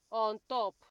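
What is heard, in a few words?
"ontop", Nigerian Pidgin, female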